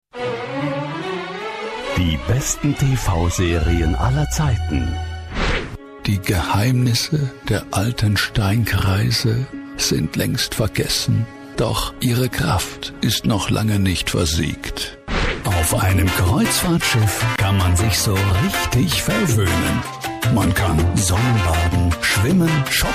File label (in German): Trailer Collage; Hörproben